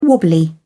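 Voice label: "wobbly", English, British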